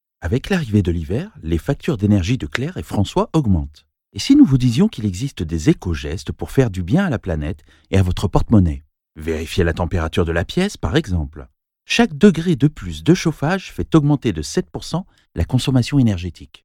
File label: French voiceover Fast paced